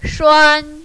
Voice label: "shuan1", mandarin, female

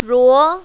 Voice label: "ruo2", mandarin, female

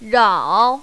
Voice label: "rao3", mandarin, female

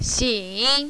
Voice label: "xin3", mandarin, female

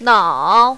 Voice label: "nao3", mandarin, female

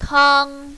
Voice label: "tang1", mandarin, female; 汤

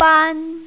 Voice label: "ban1", mandarin, female

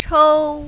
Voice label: "chou1", mandarin, female

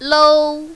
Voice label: "lou1", mandarin, female

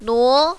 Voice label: "nuo2", mandarin, female

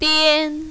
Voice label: "dian1", mandarin, female

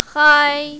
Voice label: "hai1", mandarin, female

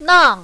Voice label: "nang4", mandarin, female

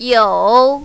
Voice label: "you3", mandarin, female

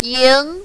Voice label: "ying2", mandarin, female

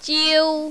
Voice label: "jiu1", mandarin, female

